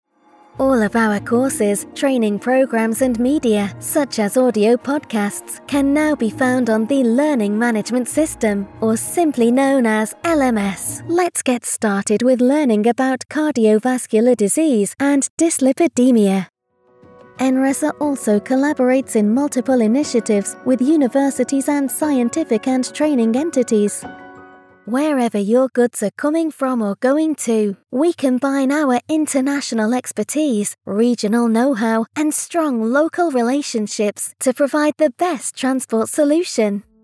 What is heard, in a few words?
ELearning Showreel